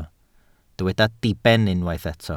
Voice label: "diben", Welsh

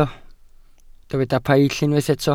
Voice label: "paill", Welsh

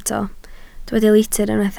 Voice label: "litr" Welsh

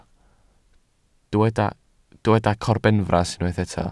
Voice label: "corbenfras" Welsh

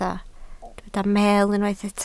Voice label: "meel", Welsh

